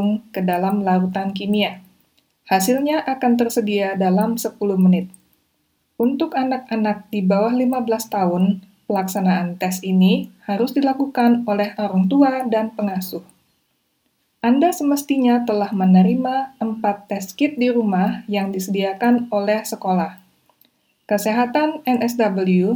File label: rapid antigen test indonesian; covid-19; Infectious